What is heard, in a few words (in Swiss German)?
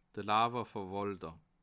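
"lawerverwalter", Bas Rhin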